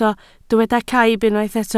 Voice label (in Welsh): "caib", Welsh